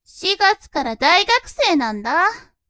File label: woman